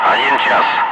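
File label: Russian speech, Ka-50 Wingman; DCS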